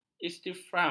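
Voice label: "istifham", Malay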